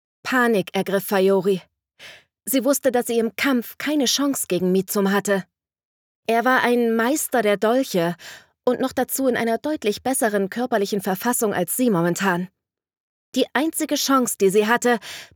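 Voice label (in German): Fantasyroman, sprecherin; Hörbuch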